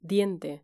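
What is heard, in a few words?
"diente" Spanish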